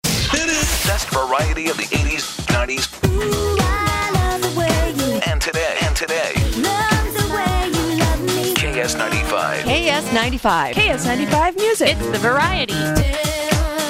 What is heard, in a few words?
Beat Promo; KS95